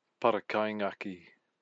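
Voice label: "parakaingaki", Māori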